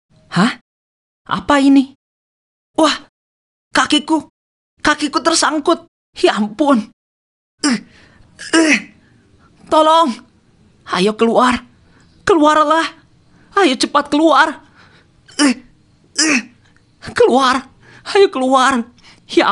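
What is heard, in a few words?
CHARACTER, pre-teen – teenagers fun character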